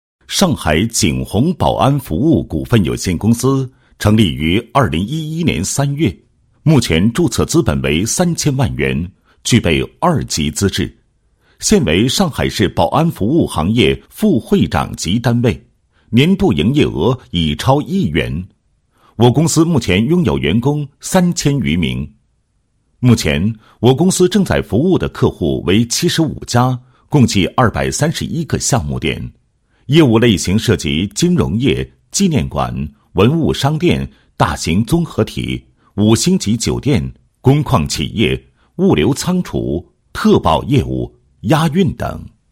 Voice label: 男 解说词 宣传片解说词; 外滩金融中心答标

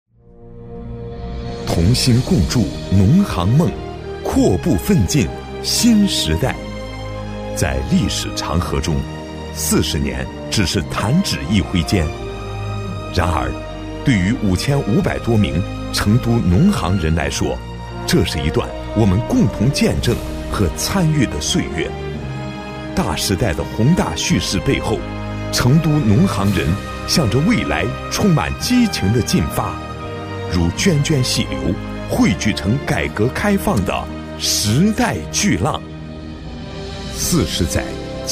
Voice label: 男 企业宣传; 成都分行

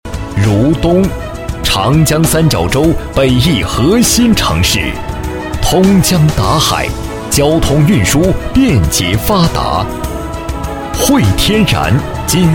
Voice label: 男 浑厚大气, 国语男声配音 磁性; 南大港; 磁性专题

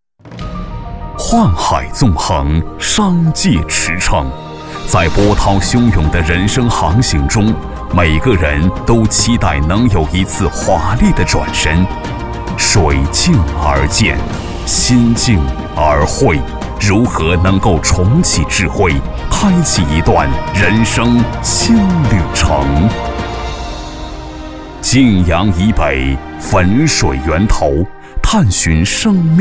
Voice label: 男 楼盘宣传; 怡和天润园

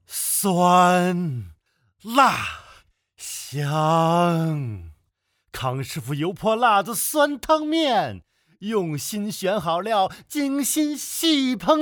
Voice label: 男 广告 欢快阳光激情; 康师傅

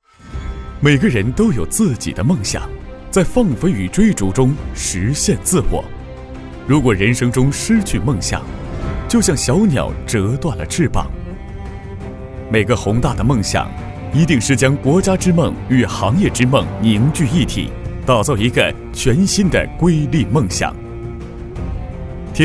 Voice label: 男 专题 积极向上; 天孚; qiyezhuanti; putonghua

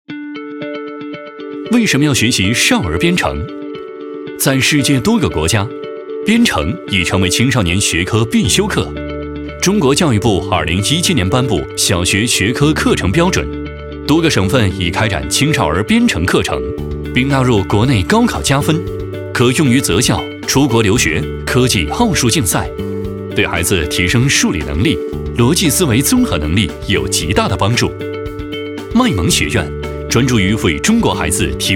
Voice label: 男, 少儿编程, 轻松介绍

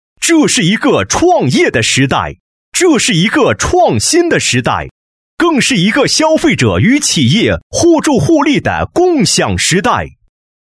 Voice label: 男, 宣传片, 集团宣传片; 股享集团

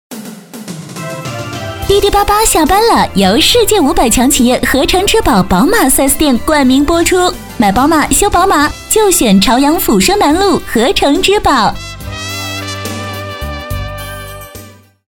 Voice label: 女, 广告, 电台; 宝马电台

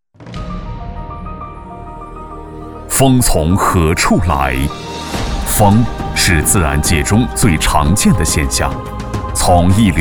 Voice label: 男 企业宣传; 风从何处来; 上风高科企业

